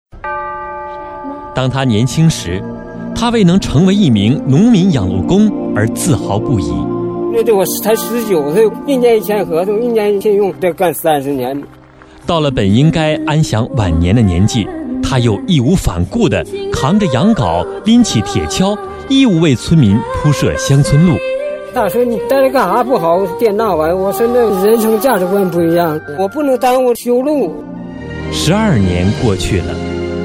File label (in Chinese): 人物专题, 国语男声配音; 北方经济型; 农民养路工